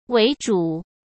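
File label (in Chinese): "为主", Mandarin; chinese-flashcards; hsk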